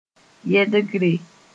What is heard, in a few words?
"yɛdgre", moore